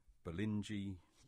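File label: "Bolingey", English; place names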